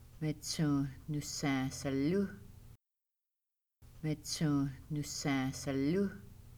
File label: His grandmother snared a fox, Kaska